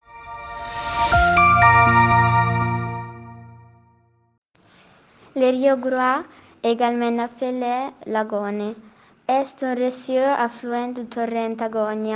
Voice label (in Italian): francese